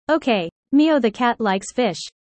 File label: user fast; Animal friends